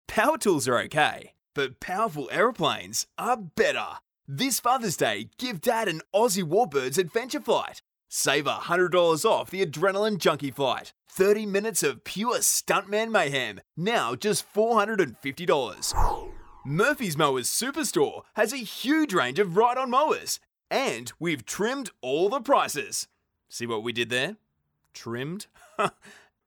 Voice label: Retail Friendly